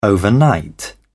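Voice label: "overnight", British English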